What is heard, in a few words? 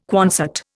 "Quonset", Indian